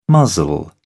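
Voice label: "muzzle", British English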